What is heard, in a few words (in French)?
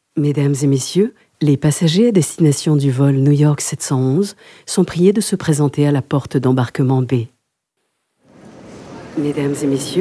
repondeur voix aeroport